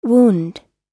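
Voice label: "wound", English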